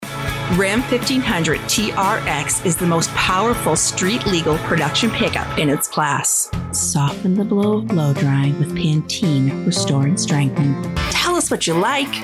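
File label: american, American Female Experienced Warm Voice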